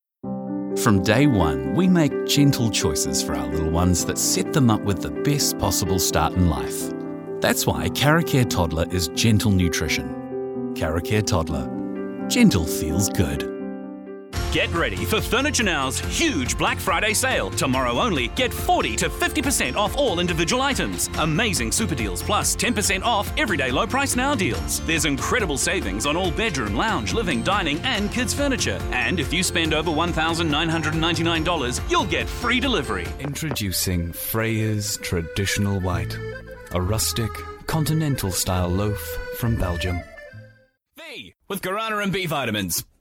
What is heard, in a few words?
Commercial; AdvantageIVR